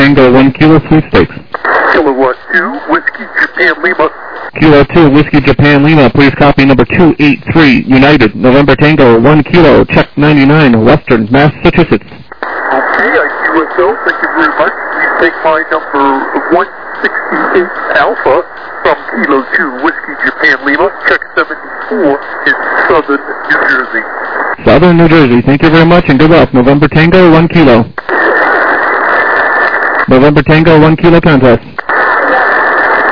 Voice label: SSB LSB 7MHz